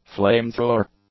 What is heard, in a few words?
"flame-thrower", English; nouns